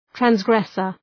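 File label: "transgressor", English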